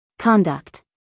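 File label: "conduct", American English, female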